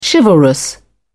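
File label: "chivalrous", English, British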